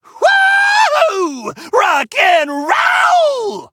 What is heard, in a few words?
victory, en; Cookie Run